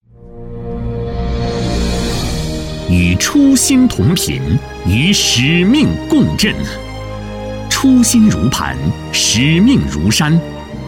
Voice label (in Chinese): 男 政府专题 税务